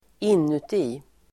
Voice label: "inuti", Swedish

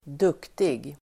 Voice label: "duktig", Swedish

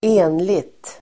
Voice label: "enligt", Swedish

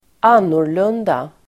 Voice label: "annorlunda", Swedish

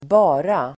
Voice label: "bara", Swedish

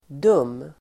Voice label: "dum", Swedish

